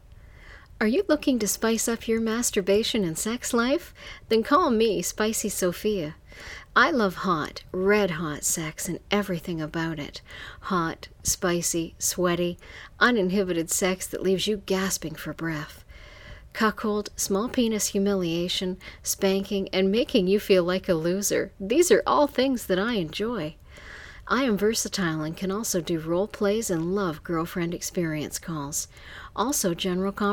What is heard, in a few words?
voice greeting